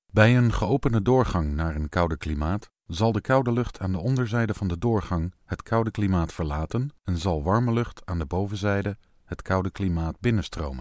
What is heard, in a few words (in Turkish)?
Hollanda Erkek Felemenkce